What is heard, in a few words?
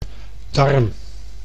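"darm", Dutch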